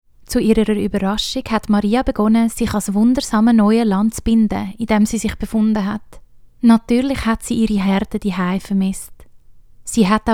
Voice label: Narration SWISS GERMAN Authentic Calming Hopeful